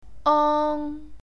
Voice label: "ong", Cantonese